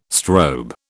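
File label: "strobe", British English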